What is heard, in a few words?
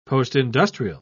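"postindustrial", British English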